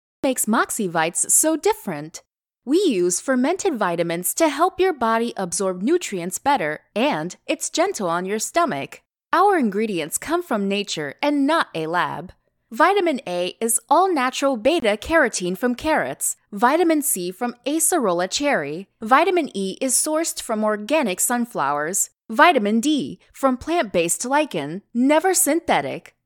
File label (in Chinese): 外籍女英 外语 美式英语 旁白类